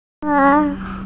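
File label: "socks", hearing impaired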